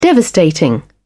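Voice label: "devastating", British English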